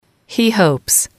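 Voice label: "he hopes", English, female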